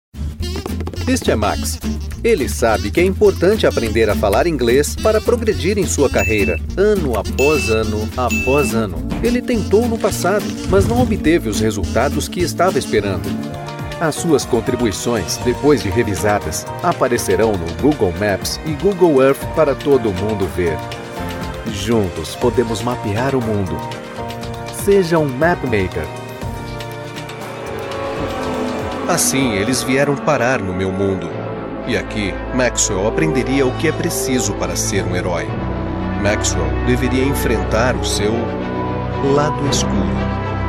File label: portuguese brazilian commercial